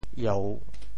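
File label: "ieu3", Teochew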